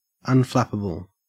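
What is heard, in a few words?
"unflappable", Australian English; pronunciations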